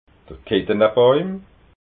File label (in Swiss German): "kittenabauim", alsace